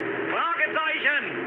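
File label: German enemy detected mood low, speech; War Thunder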